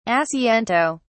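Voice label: "asiento", English, British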